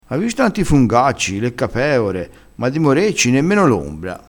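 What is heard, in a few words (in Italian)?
"nemmeno l ombra", dialettale crespinese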